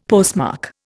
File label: "postmark", Indian; Dictionary